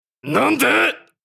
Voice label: "Why" anime villain character

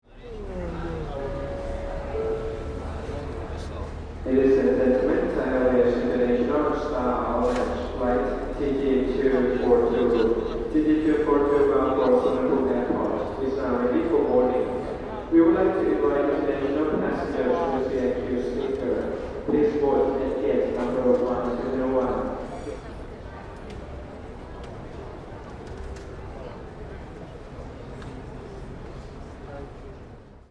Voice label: Airport announcement at security check Thailand

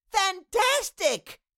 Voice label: Cartoon little child voice; fantastic

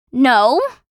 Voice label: Teenage girl saying no; misc human

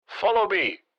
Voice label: Military radio voice command; follow me

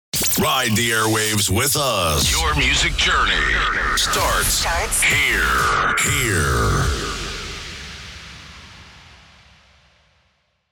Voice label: Male radio voice dj sweeper ride the airwaves; broadcasting

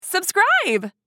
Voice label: "Subscribe" social media female; shorts sound effects

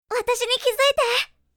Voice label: Notice me anime girl character

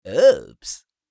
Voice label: Oops little fantasy creature; fantasy friends

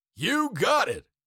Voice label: Cartoon lion voice "you got it"

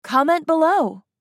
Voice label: Comment below social media male

